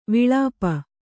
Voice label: "ವಿಳಾಪ", Kannada

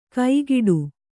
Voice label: "ಕೈಗಿಡು", Kannada

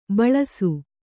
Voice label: "ಭುಲ್ಲೈಸು", kannada

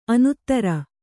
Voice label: "ಅನುತ್ತರ", Kannada

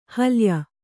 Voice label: "ಹಲ್ಯ", Kannada

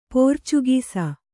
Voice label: "ಪೋರ್ಚುಗೀಸ್", kannada

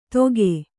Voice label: "ದೊಗೆ", kannada

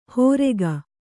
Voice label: "ಹೋರೆಗ", kannada

